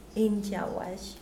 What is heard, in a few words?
"noon", Mokilese